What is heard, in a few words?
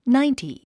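"ninety", English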